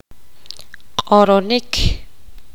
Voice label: poqomam decir

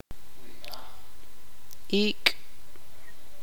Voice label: "luna", tzutujil

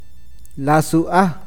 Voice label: agallas intestinos, awakateko

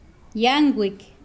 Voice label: Nawat palabras nuevo; pipil

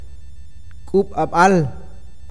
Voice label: granizo de hielo, awakateko

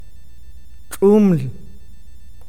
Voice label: estrella, awakateko

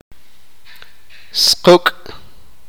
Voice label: "piojo", sipakapense

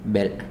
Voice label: "carne" Zapoteco

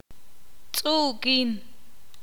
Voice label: "liso", ixil

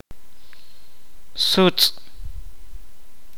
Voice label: "nube", Kaqchikel

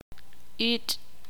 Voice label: "luna", ixil

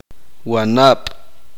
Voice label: hermana mayor, uspanteko

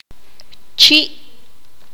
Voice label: "morder", Q'anjob'al

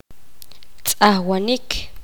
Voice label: poqomam lavar

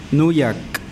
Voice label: Nawat palabras nariz; pipil

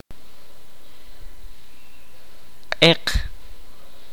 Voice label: "negro", kaqchikel

